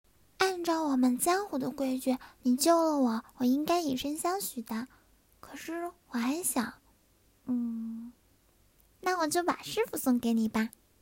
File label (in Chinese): 萝莉